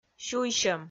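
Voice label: "сюйсьӧм" Komi, female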